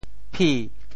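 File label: "phi2", Teochew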